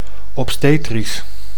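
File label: "obstetrisch", Dutch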